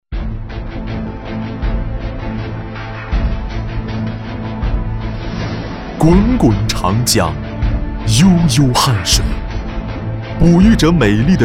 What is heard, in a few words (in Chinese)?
专题片